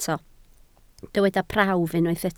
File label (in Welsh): "prawf" Welsh